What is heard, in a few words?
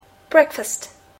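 "breakfast", English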